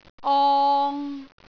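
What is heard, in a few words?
"ong3", Cantonese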